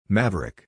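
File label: "Maverick", English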